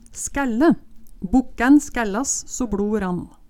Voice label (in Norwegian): "skælle", Numedalsmål